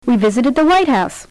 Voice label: We visited the White House Compound, Stress

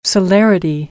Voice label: "celerity", American English

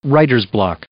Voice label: "writer's block", English

